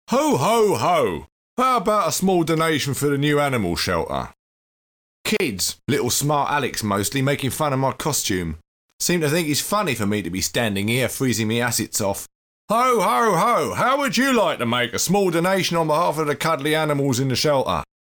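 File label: uk Englisch, British English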